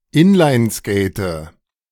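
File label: "inlineskate", German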